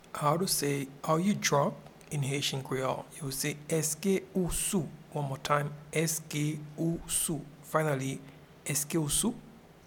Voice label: Are you drunk in Haitian Creole Eske ou sou